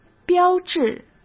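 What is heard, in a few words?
"标志", Mandarin Chinese; chinese-flashcards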